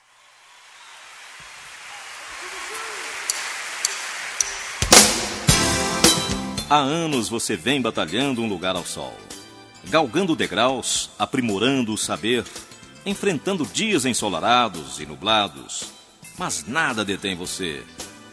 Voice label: FORMATURA MASC; Telemensagens 2017; Formaturas

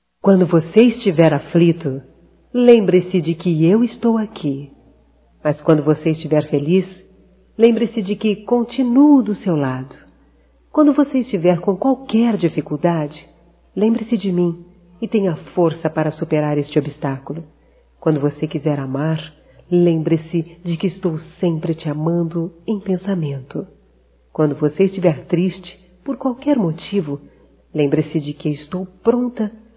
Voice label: ROMANTICA(F), Românticas, fem

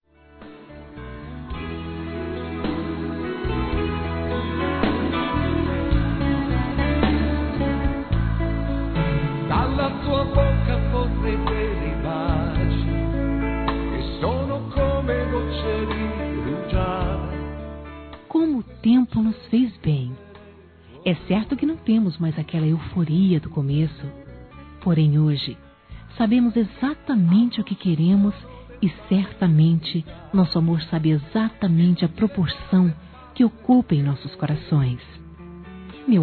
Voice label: Romântica para Casados Feminino, Telemensagens; Românticas

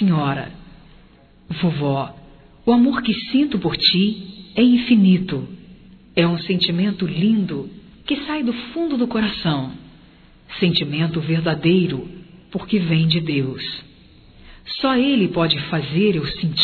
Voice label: Aniversário Feminino, Telemensagens

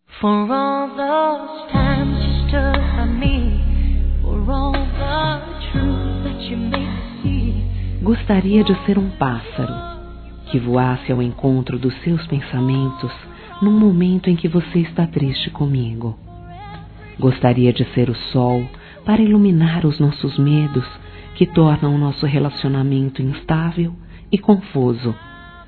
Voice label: Desculpas Romântica Femin, Telemensagens; Telemensagens 2017

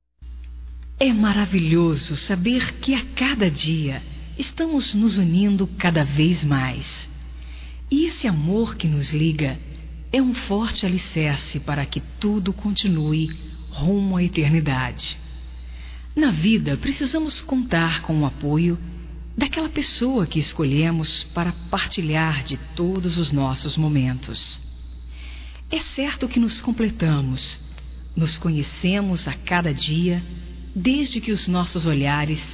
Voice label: NAMORO, Telemensagens, Aniversarios, fem; Telemensagens 2017

